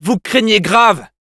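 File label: Scout jeers, French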